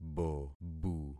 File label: "bobu", concat syllables; Affixing experiment